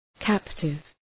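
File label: "captive", English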